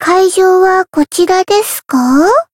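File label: encounter, jp; soira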